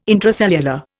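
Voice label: "intracellular", Indian English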